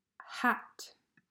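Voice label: "Hat", English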